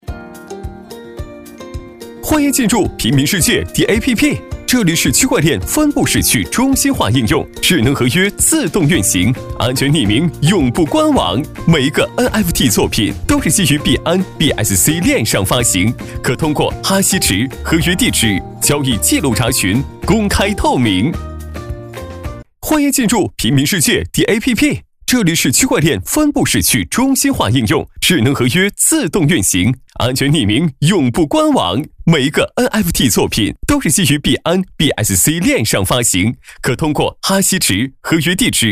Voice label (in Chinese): 男; 欢迎进入DAPP